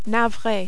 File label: "navre", French